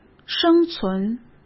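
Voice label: "生存", Mandarin Chinese; chinese-flashcards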